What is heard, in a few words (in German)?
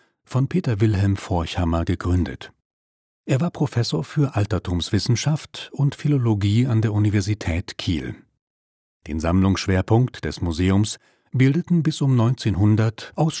audioguide Antike